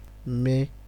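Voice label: "meh", Nigerian Pidgin, male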